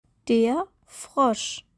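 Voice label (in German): "Der Frosch", German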